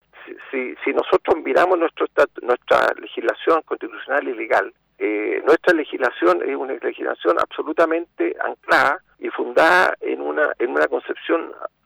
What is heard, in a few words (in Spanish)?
cuña